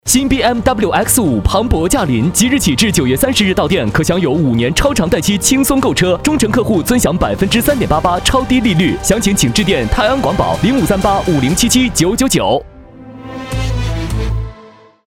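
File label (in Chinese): 广告 男 汽车广告; BMW汽车广告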